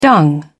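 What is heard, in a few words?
"dung", British English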